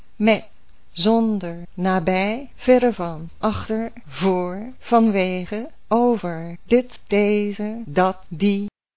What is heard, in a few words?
dutch words